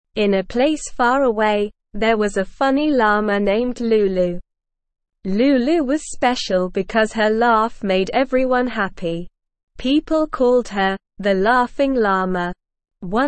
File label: ESL Short Stories for Kids Beginner SLOW Reading; The Legend of the Laughing Llama